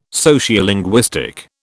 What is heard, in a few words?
"Sociolinguistic", British